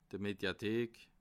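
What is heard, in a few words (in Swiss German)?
"mediathek", Bas Rhin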